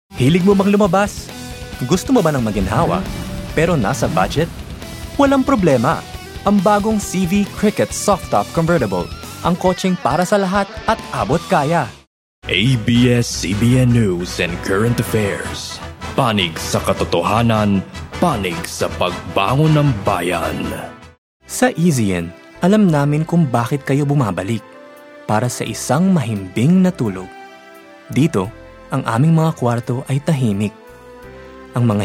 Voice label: Filipino Megamix